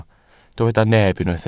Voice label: "neb", Welsh

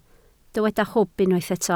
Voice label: "hwb", Welsh

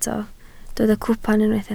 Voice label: "cwpan", Welsh

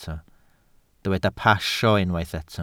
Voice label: "pasio", Welsh

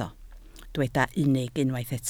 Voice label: "unig", Welsh

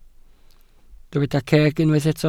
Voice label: "ceg", Welsh

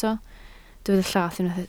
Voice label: "llath", Welsh

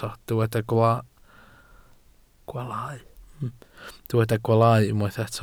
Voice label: "gwaalau", welsh

